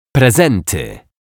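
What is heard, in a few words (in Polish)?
"prezenty", Polish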